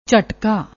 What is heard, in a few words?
"jhatkaa", Punjabi